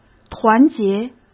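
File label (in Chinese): "团结", Mandarin Chinese; chinese flashcards; hsk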